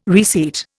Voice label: "reseat", Indian